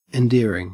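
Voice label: "endearing", Australian English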